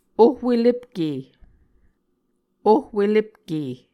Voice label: "ohwelepke", Muscogee

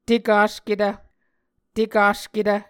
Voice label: "tekasketv", Muscogee

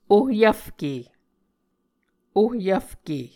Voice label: "ohyvfke", Muscogee

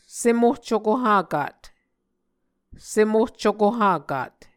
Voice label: "sem ohcuko hakat", Muscogee

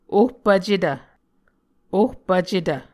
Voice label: "ohpvcetv", Muscogee

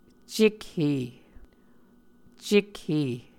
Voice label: "cekhe", muscogee

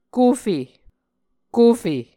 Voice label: "kufe", Muscogee

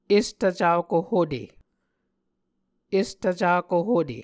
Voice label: "estvcako hute", Muscogee

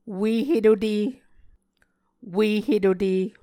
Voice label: "ue-hetote", muscogee

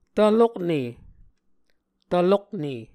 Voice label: "tvlokne", Muscogee

